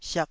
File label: "siak2", taiwanese Syllables